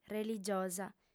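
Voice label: "religiosa", Sardinian, female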